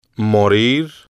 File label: "morir", spanish